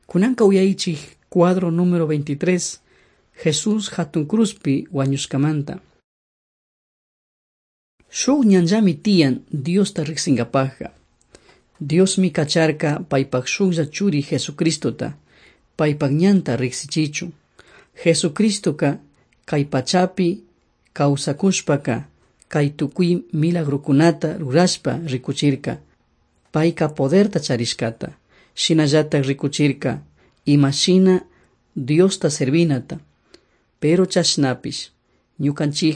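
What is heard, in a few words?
quichua chimborazo, drama; on trial for god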